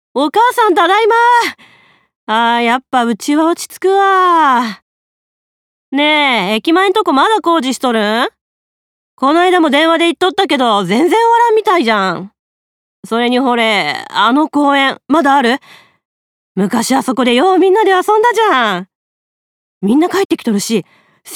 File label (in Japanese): 三河弁; 方言